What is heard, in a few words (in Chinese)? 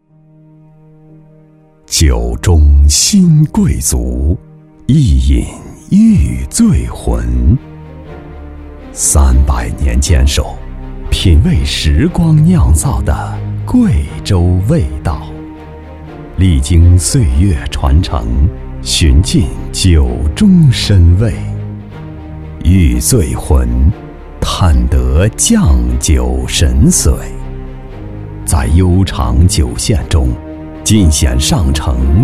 男 广告 端庄稳重 酒